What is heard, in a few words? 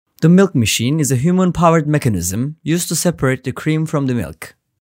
english